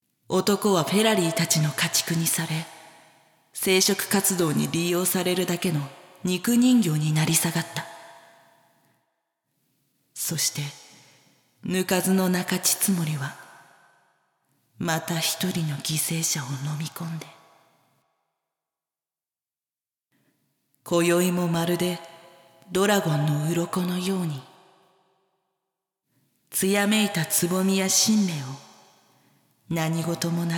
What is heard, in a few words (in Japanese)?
(SEなし) ナレーション; 淫妖精の森